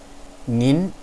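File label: "nin2", Chinese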